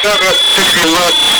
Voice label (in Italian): "cara", italia; Cara, artisti qua